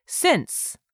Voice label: "SINCE", english; 3000 words

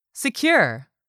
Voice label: "SECURE", english; 3000 words

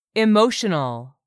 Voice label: "EMOTIONAL", English; 3000 words

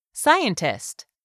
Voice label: "SCIENTIST", english; 3000 words

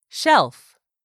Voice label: "SHELF", english; 3000 words

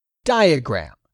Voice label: "DIAGRAM", english; 3000 words

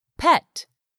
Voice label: "pet", English; 3000 words

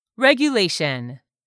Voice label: "REGULATION", English; 3000 words

